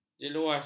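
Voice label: "jeluas", Malay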